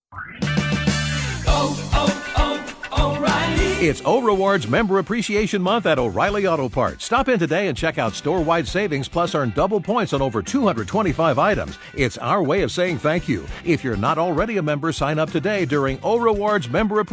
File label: Radio commercial; eurobeat; O'Reilly Auto Parts